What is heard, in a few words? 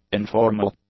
"informal", English; adjectives